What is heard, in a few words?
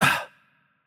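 Hurt Light, Romanian; bloom minigame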